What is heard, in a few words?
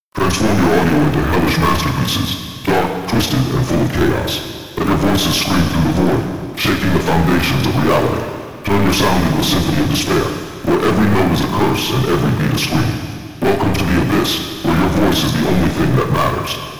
hellish male; hellish masterpiece; audio satanifier 666; reactorcore